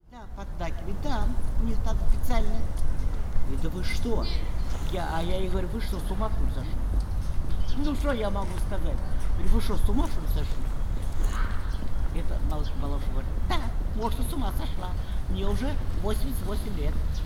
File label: old ladies; sergiyev posad